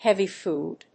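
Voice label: "heavy food", English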